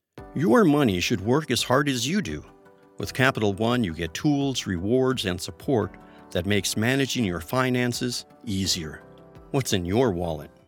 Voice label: online Ad trusted confident professional male voiceover smart; CapitalOne